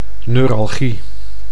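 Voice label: "neuralgie", Dutch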